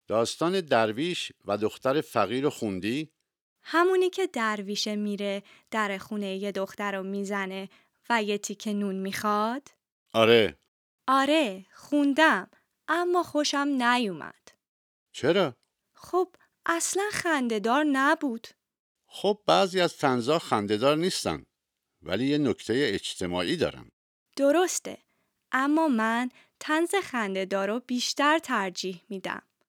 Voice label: Dialogue lesson Farsi